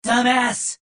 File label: negative vocalization; adachi